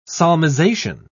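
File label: "solmization", English, British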